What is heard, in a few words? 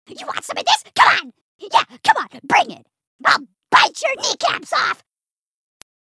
Outtake Grunts Bring it on